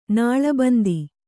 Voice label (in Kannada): "ನಾಲಬಂದ", Kannada